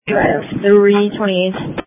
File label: Police Tactical Encrypted; sdr